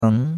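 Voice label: "eng", Chinese, second tone; Cours-de-Chinois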